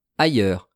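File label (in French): "ailleurs", French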